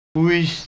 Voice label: "uis" Bavarian